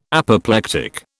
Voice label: "Apoplectic", British

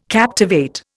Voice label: "captivate", Indian

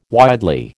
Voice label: "widely", American

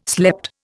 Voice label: "slept", Indian

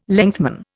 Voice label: "lengthman", Indian

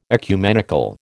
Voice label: "ecumenical", American

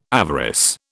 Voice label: "avarice", British

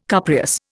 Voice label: "cupreous", Indian

